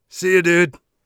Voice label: bye, Warrior Voice; Warrior Voice Pack

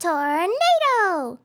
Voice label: "tornado", RPG Voice; RPG Voice Starter Pack

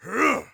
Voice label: attack, orc voice; Orc Voice Pack

